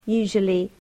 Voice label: "usually", English